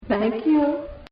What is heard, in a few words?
Robot Says Thank You